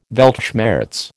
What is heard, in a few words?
"Weltschmerz", American